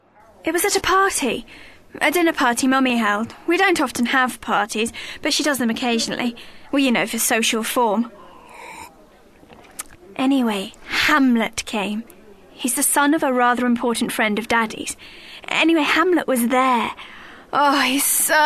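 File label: drama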